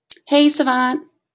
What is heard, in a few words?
hey savant, wake word, en